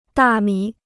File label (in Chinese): "大米", Chinese